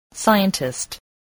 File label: "scientist", english spelling